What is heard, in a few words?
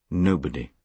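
"nobody", British English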